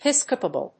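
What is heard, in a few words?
"pipsqueak", English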